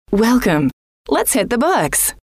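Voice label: welcome let's hit the books, female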